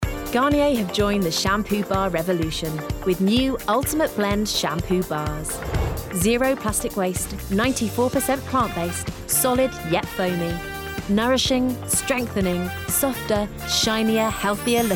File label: Commercials Montage